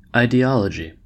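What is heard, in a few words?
"ideology", American English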